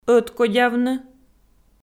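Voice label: "ӧткодявны", Komi, female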